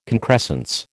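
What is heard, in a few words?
"concrescence", American English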